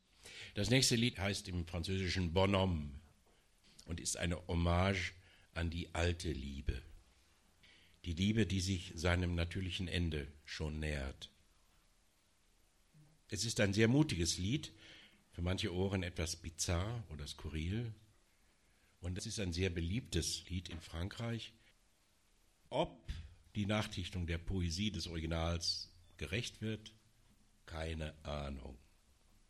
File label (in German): ansage; abgesang